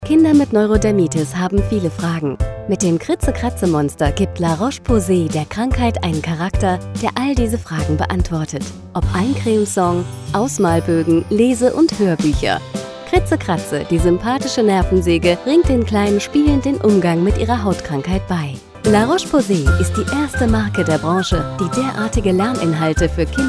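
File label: German, advert; La Roche Posay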